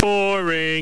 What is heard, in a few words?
boring